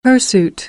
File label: "hirsute", American; uː - juː